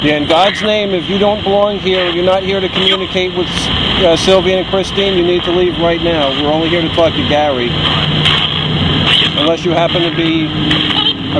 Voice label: female response